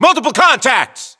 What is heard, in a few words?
US contact multiple, Voiceovers